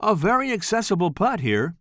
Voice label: commentator